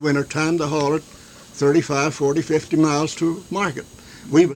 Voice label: Oral history with 85 year old white male Montrose Colorado, american english dialect